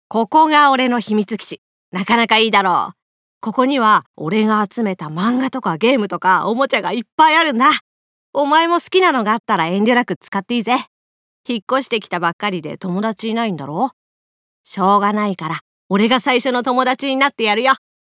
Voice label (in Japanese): 少年